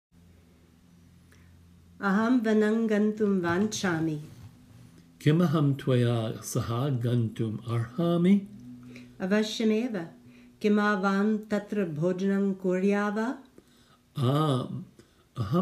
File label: Conversation